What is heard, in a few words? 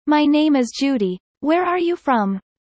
fast; studying abroad